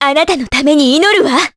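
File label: Vox Skill, Japanese; Juno; kings raid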